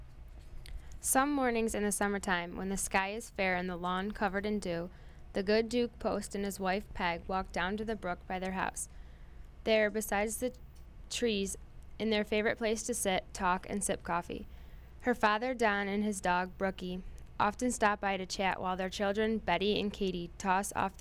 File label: Northern female RP